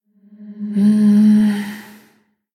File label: Female Human Thinking; JainaDeathknight; zh